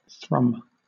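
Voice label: "thrum", British English; pronunciations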